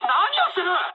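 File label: Japanese attack position mood med; War Thunder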